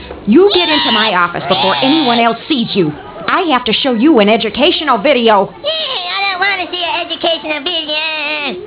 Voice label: educationalvideo